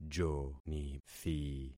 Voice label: "jonithi", concat syllables; Affixing experiment